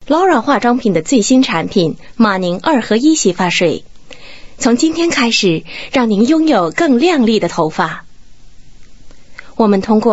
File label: Mandarin, Mandarin China Female